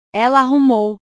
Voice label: "ela arrumou", portugues

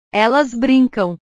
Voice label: "elas brincam", portugues

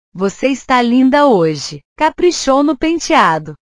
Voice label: "caprichar", portugues

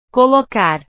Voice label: "colocar", portugues; portugues/verbos

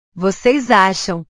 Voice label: "voces acham", portugues; Ustedes creen; verbos

